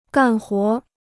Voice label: "干活儿", Chinese